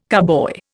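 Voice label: "carboy", Indian English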